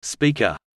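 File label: "speaker", English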